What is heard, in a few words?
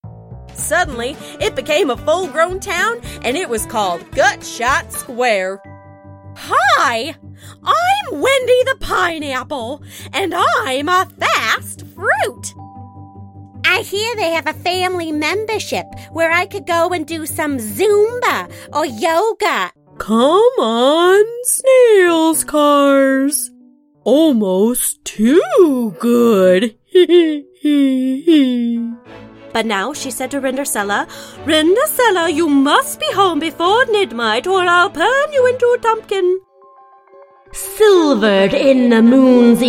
Character